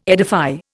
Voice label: "edify", Indian